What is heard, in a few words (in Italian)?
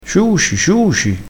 "ciuci ciuci", crespinese